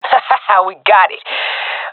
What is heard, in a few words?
cheer, swat; vo; agents; Source Model Packs; Counter Strike Model Pack; CS2; swat epic